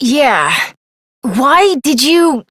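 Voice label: Voice, English; New War; Operator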